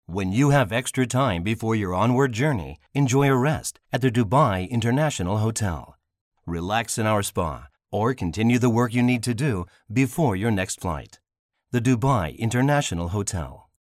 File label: foreigner; 重厚な